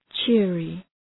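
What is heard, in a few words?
"cheery", English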